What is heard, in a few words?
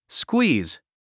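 "squeeze", English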